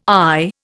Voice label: "eye", Indian